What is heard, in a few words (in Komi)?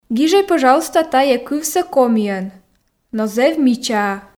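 "гижӧй пӧжалуйста тайӧ кывсӧ комиӧн но зэв мичаа", Komi, female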